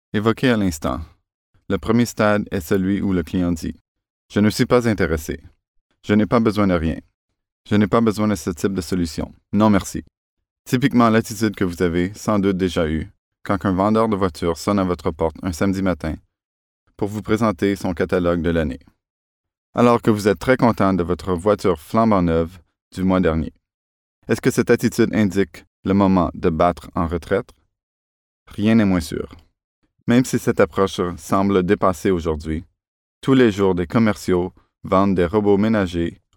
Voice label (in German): Nachvertonung Film Sprecher Französisch Can